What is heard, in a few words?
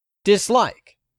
"dislike", English; 3000 words